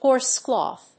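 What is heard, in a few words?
"horse cloth", English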